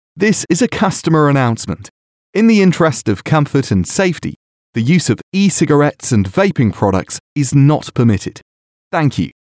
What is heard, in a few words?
Male; E-Cigarettes